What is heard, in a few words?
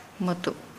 "break string", Nukuoro